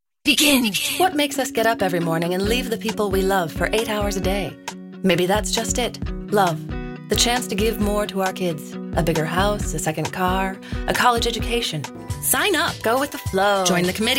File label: VO Demo